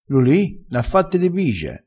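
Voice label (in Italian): "fanne di bige", vocabolario dialettale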